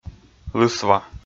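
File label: "лысва" Komi, male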